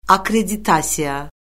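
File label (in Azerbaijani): "akkreditasiya", Azerbaijani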